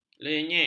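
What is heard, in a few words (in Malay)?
"lenyek", Malay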